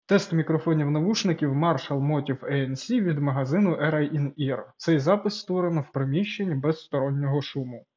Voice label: Marshall Motif ANC Indoor